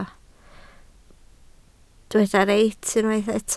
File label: "reit", Welsh